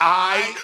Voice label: all right group of men